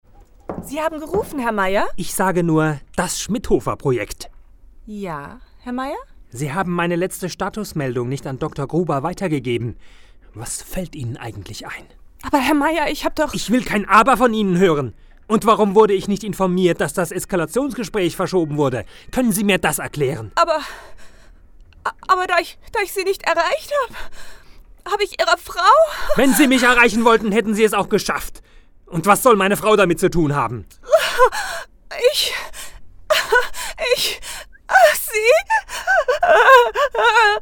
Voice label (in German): Hoerspiel